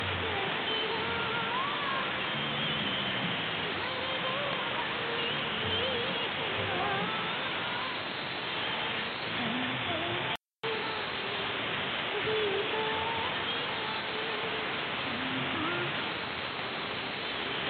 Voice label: 11870khz AM; Radio Veritas